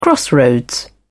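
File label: "crossroads", British English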